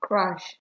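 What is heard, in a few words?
"crash", Nigerian Pidgin, female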